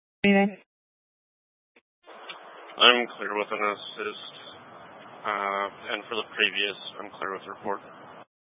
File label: Sheriff Dispatch; Mesa County